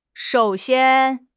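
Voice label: "shou3 xian1", Mandarin Chinese; HSK4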